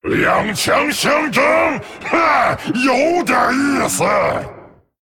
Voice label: Male Dragon START; zh

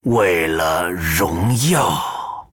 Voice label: Male Troll Thinking, zh

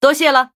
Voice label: Thanks, zh

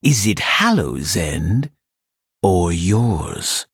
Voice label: Male Blood Elf HAPPY HALLOWEEN

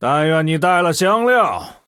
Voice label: Male Human Mirror Start; zh

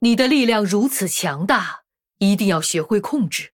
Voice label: Female Human Well Played, zh

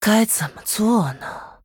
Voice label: Female Human Thinking, zh

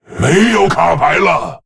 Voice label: Male Lich; zh

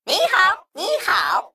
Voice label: Male Mech ERROR NEED MANA

